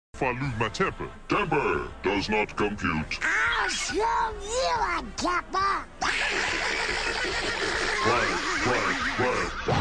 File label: donald angry